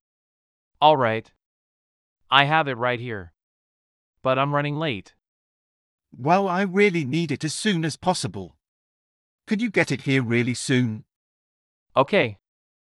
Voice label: Telephone delivery conversation